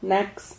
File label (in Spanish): "nax", Silabas